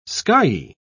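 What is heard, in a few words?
"skyey", British English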